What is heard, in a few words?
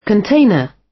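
"container" British; Business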